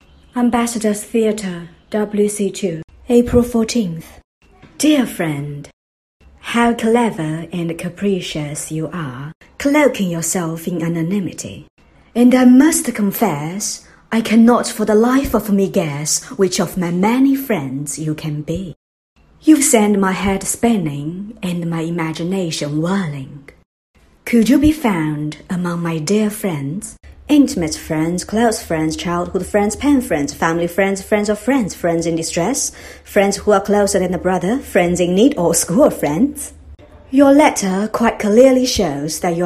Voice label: 英式知性优雅朗读

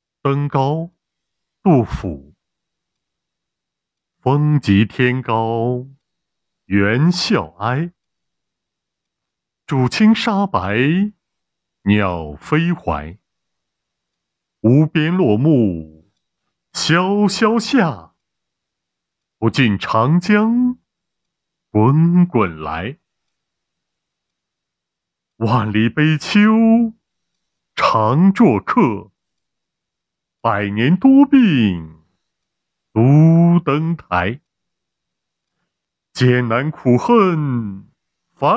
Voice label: 诗词朗诵

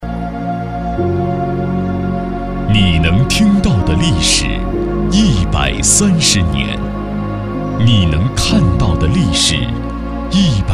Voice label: 配音; 国窖合成版

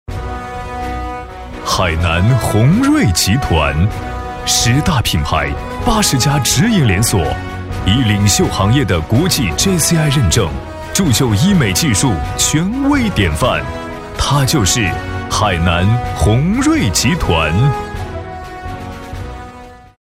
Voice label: 大气震撼; 十大年度医美企业

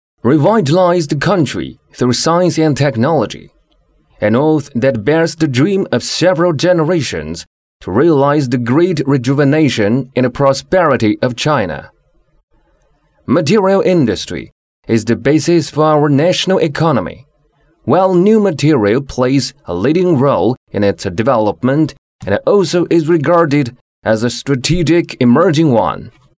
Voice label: 男英; 佑威

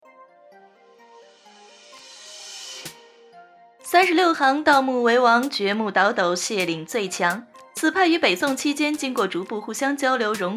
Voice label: 电影解说神秘讲述感语速偏快, 女, 中年, 普通话

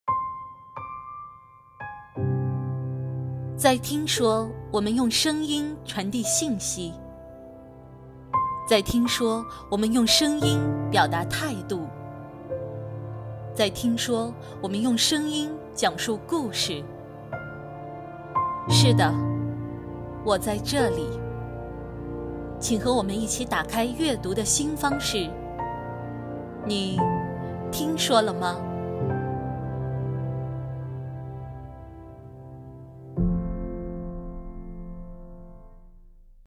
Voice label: 讲述类广告

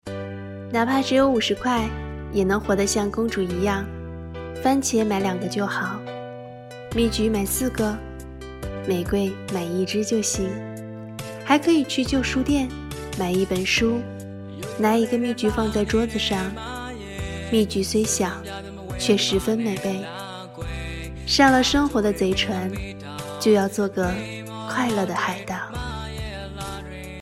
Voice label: 短片视频配音

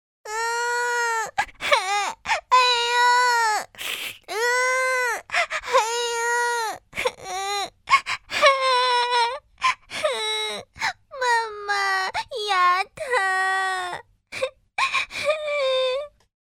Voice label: 音频女童

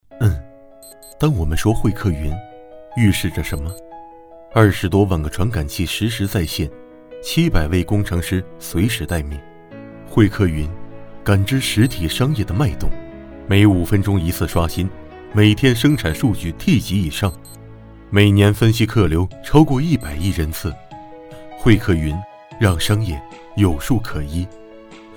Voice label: 宣传

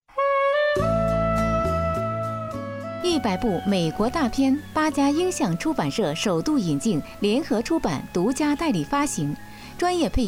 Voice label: 广告解说配音加音效; 重庆经广片花; 滚动吧朋友圈

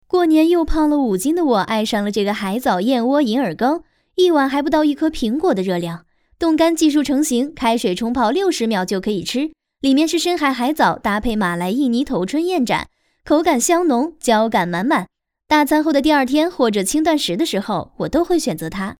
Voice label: 温柔细腻女声

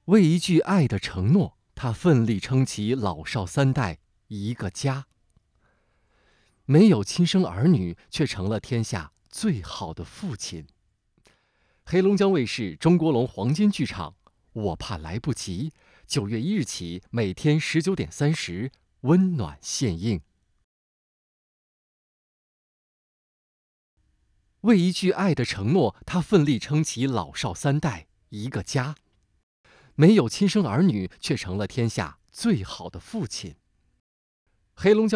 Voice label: 剧宣配音

